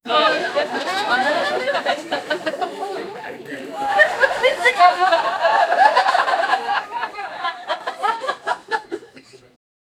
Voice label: a lively japanese tv show, studio